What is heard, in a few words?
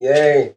"yay", unimpressed